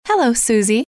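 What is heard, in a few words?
hello, female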